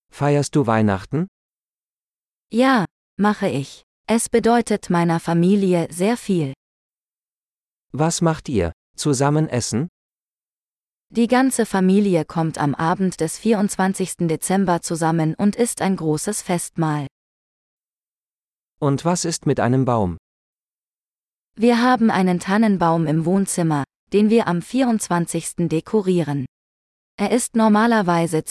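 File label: Celebrating Christmas - Dialogue, German; de-en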